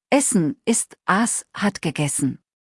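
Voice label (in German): "essen" German word; verben kurzliste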